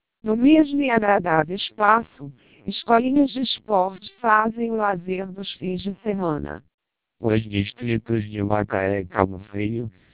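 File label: TWELP 400 bps Vocoder, Portuguese speech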